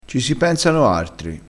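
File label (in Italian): "noartri", dialettale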